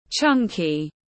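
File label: "chunky", English; Béo lùn khỏe mạnh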